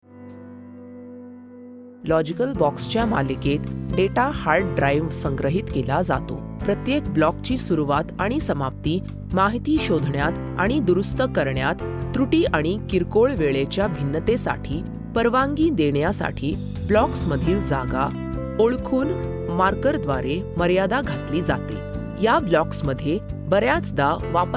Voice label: elearning marathi